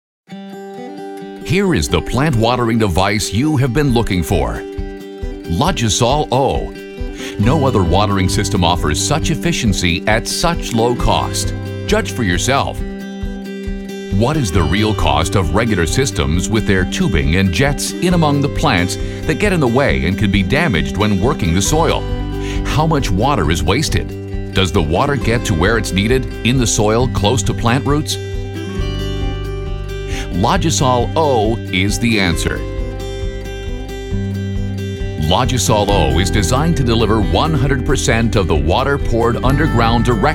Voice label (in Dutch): Product Videos Bedrijfsleven; BUITENLANDSE VOICEOVER; stemacteur